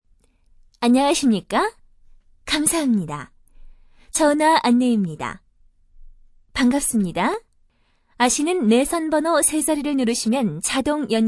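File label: Korean female; ARS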